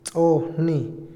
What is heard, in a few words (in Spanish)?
"acusado", Otomí, hombre; INALI